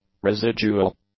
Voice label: "residual", English; adjectives

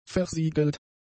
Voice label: "versiegelt", German; adjectives

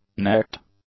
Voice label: "necked", english; adjectives

